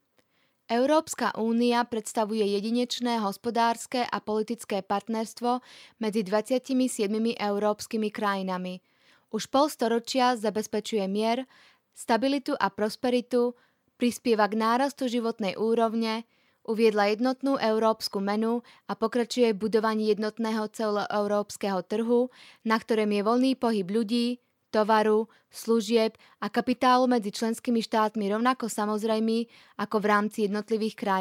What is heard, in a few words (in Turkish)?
Slovakca Kadin; slovakca seslendirme; kadin sesler